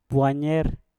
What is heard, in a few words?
"bwanyer", Mpur; jauh